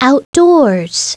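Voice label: "outdoors", spoken word; Princess and the Pea; SoftKey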